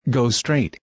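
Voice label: "go straight", English phrase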